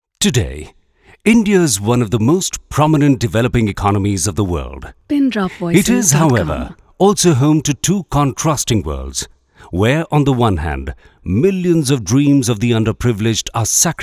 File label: English